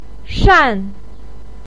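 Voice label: "shan4", Mandarin Chinese, pinyin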